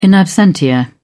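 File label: "in absentia", British English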